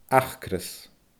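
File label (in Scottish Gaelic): "acras", Scottish Gaelic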